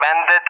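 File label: "bandit", English, RUSSIA; DCS; Allied Flight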